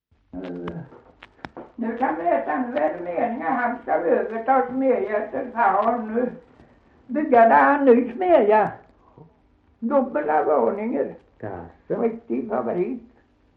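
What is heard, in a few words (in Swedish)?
Gunnarp Halland, dialekter svenska sagor; Folkminnen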